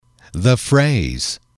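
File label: "phrase", English, male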